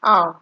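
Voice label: "how", Nigerian Pidgin, female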